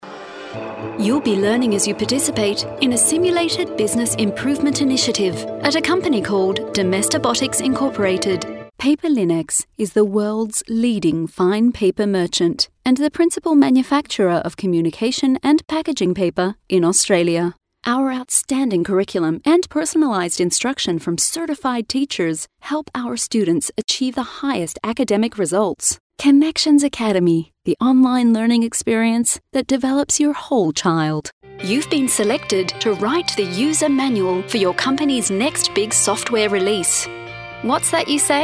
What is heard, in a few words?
australian narration; AUSTRALIAN » Rich, sultry, and energetic.